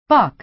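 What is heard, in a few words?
"buck", American English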